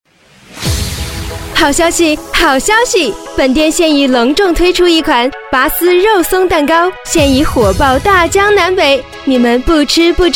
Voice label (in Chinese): 女 促销广告 年轻俏皮; 蛋糕店; 甜美轻柔; 激情力度